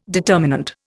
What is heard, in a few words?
"determinant", Indian